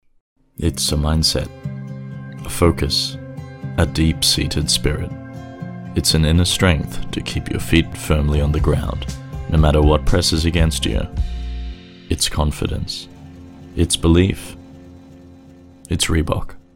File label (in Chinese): 外籍英男 运动鞋宣传片 快消品; 锐步